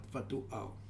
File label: "Fatu Ao", futuna aniwa